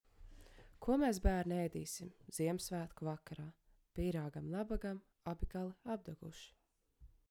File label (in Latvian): balss tests, mikrofons; Blue Yeti mikrofonu; Logitech